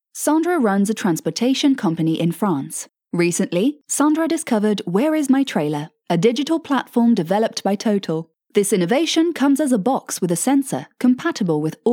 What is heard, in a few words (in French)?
voix off; Total